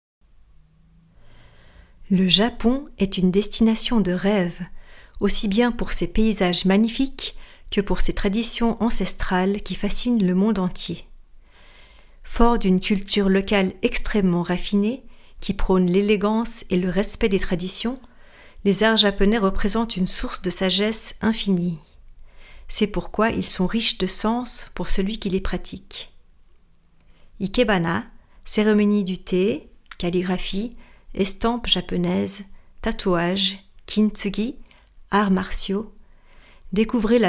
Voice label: Voice Over FR, voix off